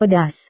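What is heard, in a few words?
"pedas", Indonesian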